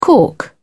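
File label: "cork", British English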